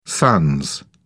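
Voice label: "sans", British English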